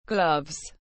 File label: "gloves", English